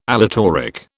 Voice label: "aleatoric", British